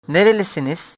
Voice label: where from, turkish